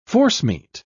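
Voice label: "forcemeat", en, uk British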